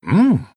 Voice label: happy; Dota 2; pangolin